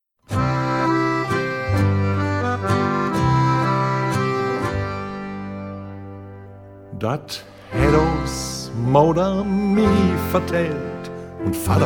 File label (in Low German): Bi us hier snackt he Platt